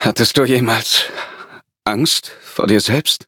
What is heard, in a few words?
loading, de; Cookie Run